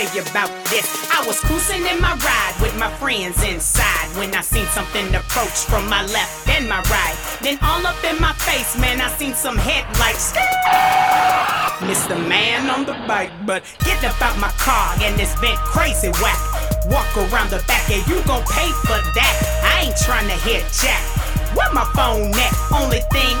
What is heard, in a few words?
pain commercial; GRIND FORCE RECORDS